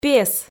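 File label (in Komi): "пес", Komi, female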